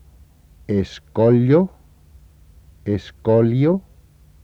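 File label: spanish escollo escolio; [esˈkoʎo esˈkoljo]; spansk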